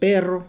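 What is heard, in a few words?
"perro", Mexican Spanish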